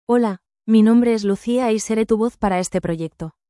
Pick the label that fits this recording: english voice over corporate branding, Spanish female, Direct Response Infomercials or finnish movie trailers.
Spanish female